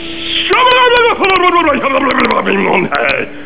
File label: gibberish